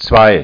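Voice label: "zwei", German; ZAEHLEN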